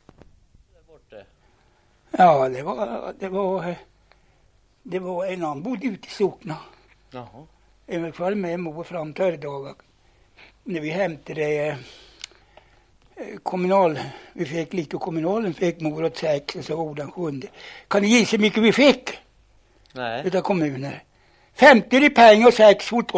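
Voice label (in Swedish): Horn Östergötland, dialekter; Folkminnen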